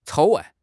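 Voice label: "草案", Chinese; HSK6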